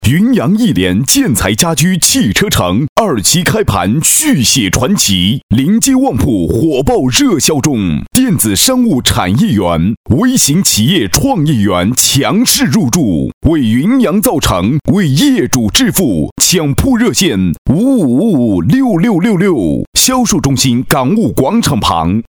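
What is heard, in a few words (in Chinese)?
【广告】男-磁性激情; 建材家居汽车城; 亿联建材家居汽车城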